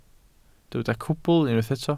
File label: "cwbl", welsh